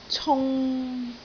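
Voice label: "cung3", Cantonese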